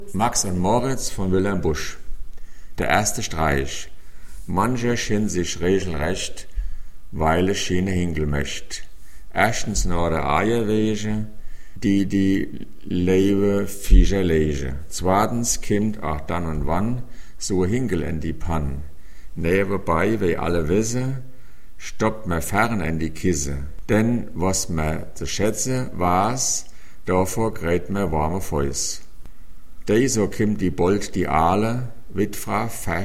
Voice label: dialekte Hessisch

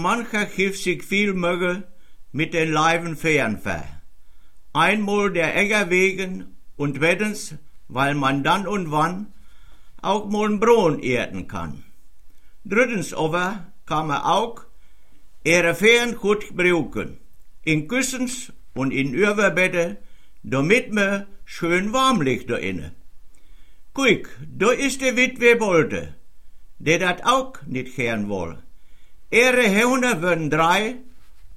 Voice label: Dialekte Ostwestfal

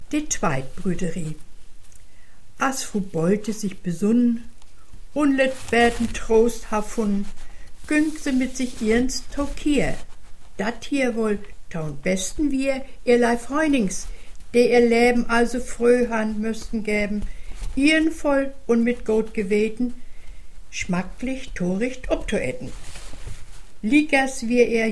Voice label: dialekte Neustrelitz

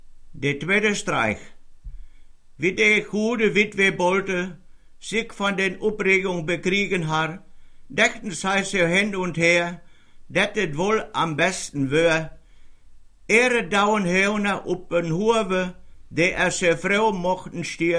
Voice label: Dialekte Ostwestfal

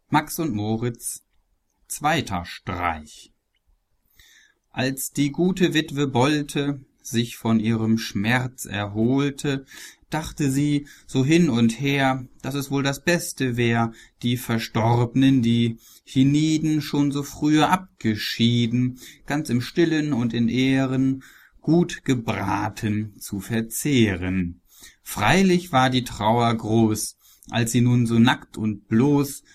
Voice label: dialekte Hochdeutsch

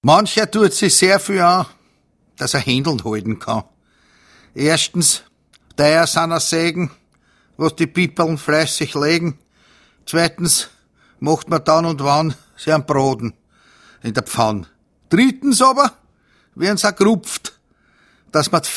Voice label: dialekte Wien

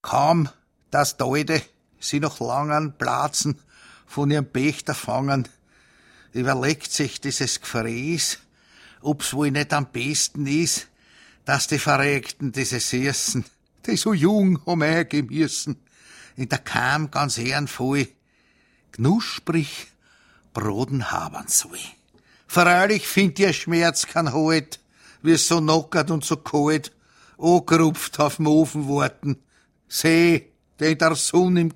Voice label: dialekte Wien

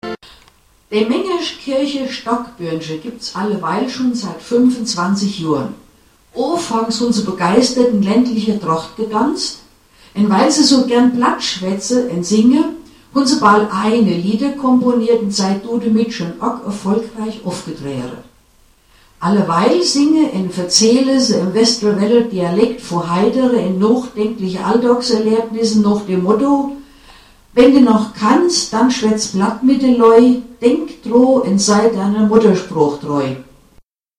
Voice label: dialekte hessenklaenge

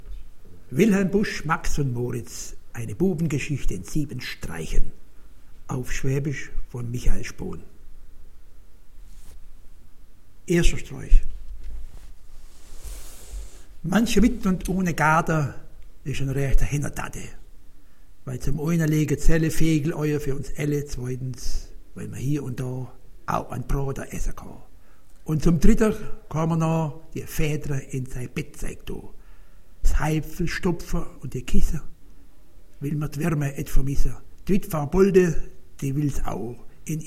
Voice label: dialekte Schwaebisch

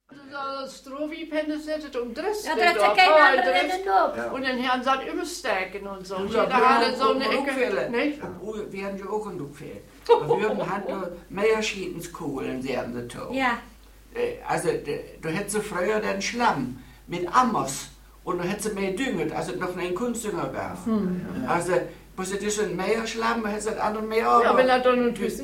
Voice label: dialekte mardorferplatt

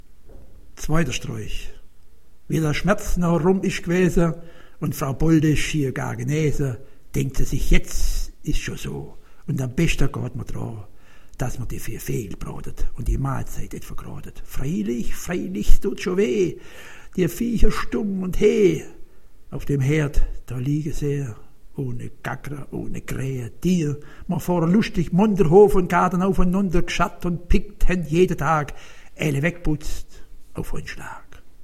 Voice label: dialekte Schwaebisch

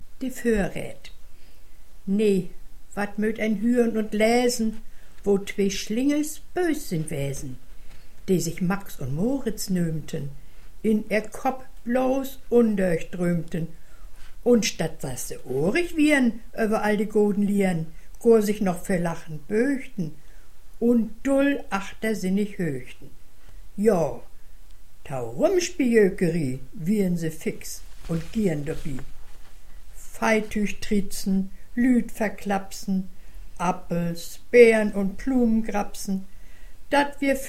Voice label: dialekte Neustrelitz

